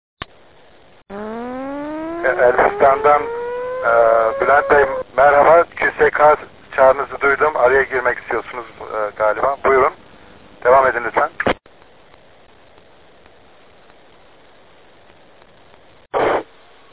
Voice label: QSO; KONFERANS ODASINA SATASMALAR